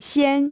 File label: "先", china